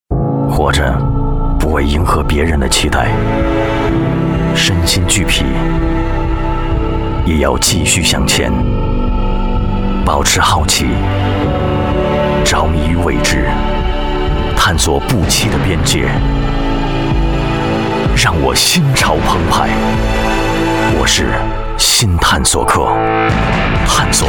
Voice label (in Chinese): 男 广告, 国语男声配音; The north face